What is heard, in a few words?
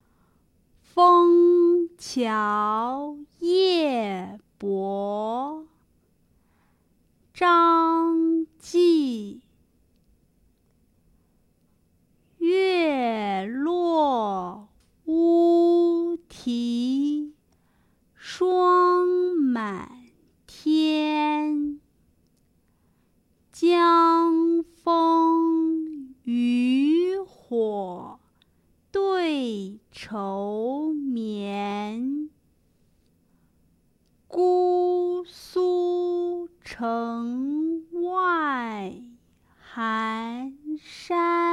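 Speech Contest Fengqiaoyebo slow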